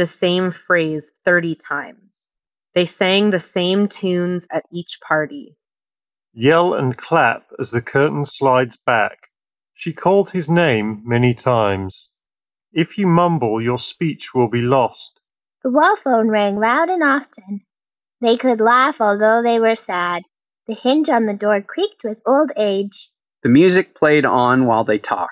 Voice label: Ideal microphone sample; JBL Tune Buds